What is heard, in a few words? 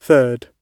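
"third", British English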